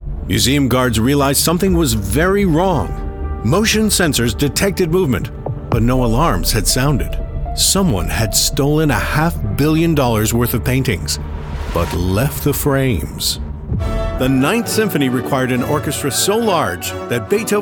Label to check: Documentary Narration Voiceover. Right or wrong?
Right